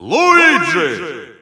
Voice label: Russian Announcer; Luigi; SSBU